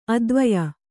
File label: "ಅದ್ವಯ", Kannada; advaya; = ಅದ್ವಯವಾದ.; (phil.) the Soul.; (phil.) the Supreme being.